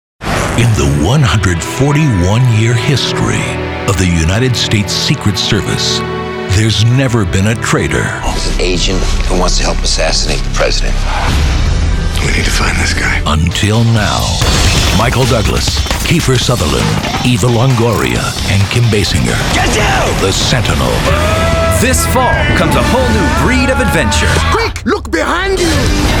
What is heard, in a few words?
Trailers